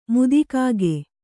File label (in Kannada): "ಮುದಿಕಾಗೆ", Kannada